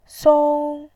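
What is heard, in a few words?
"song", Mandarin Chinese, first tone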